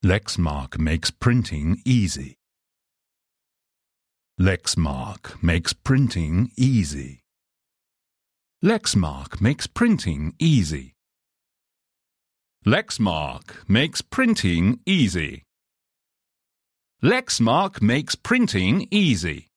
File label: ADVERT; LEXMARK